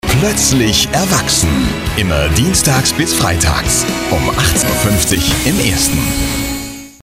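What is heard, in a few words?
German, male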